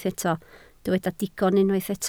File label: "digon", Welsh